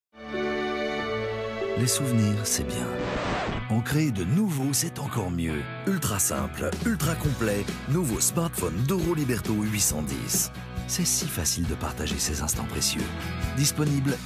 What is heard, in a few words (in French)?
spot TV; mobiles Doro